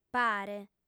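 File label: "pare", female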